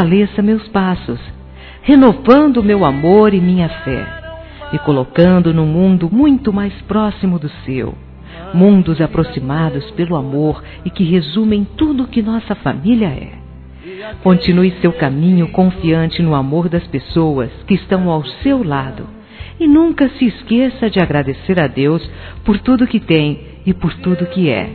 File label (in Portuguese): Aniv. Filho religioso f.